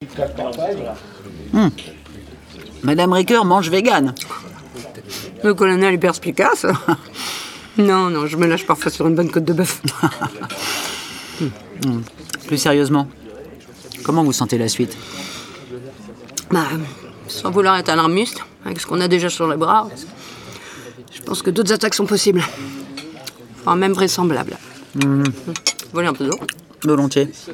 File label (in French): podcast; hexagone balard